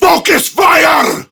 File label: Attack Prime Grineer Male; Tau; Battle Barks